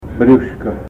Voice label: "belly", Russian

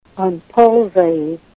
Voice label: he is crawling, Ninilchik Russian; pólzat'; v ipfv. crawl

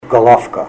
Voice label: head, Ninilchik Russian; galófka ГОЛОВКА; n. fishhead

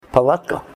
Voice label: "tent", Ninilchik Russian

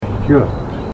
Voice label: "schot", Ninilchik Russian

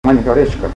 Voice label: "small creek", Ninilchik Russian

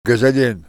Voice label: boat gas, Ninilchik Russian

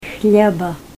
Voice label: bread, Ninilchik Russian

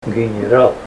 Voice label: general, Ninilchik Russian

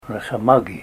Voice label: wolverines, russian

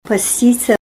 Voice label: fast, Ninilchik Russian; v ipfv. fast

